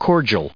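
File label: "cordial", US English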